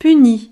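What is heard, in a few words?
"puni", French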